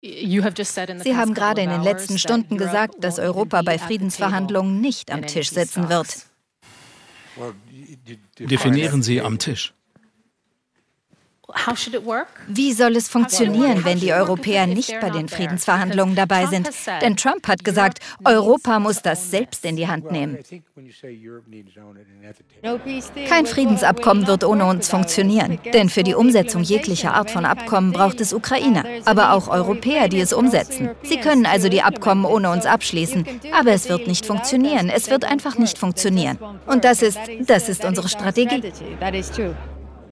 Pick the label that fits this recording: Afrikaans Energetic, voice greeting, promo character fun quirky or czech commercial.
czech commercial